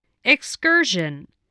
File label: "excursion", voice, english